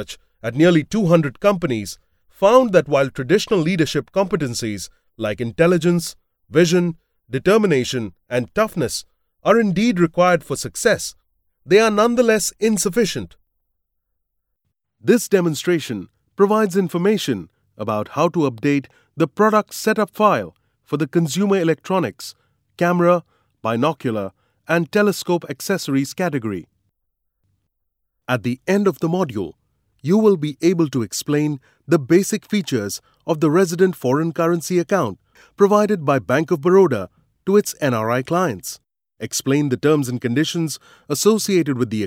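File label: E-learning English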